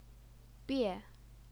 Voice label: "bie4", Chinese pinyin